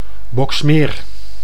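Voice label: "Boxmeer", Dutch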